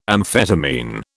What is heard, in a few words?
"amphetamine", British